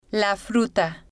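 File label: "la fruta", Spanish, female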